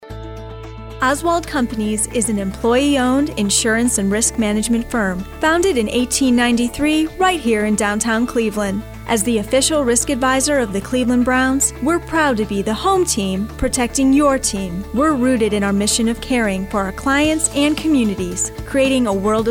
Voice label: female voice